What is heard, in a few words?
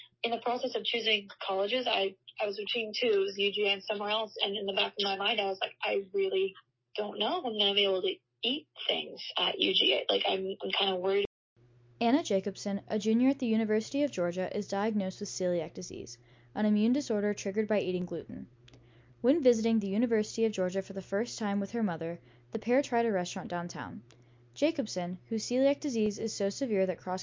Oral Narrative